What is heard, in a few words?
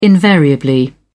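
"invariably", British English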